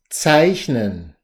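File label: "zeichnen", German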